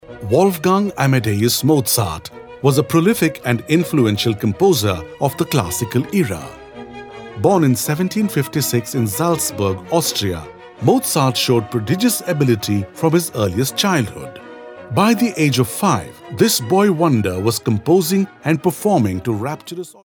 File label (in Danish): Dokumentar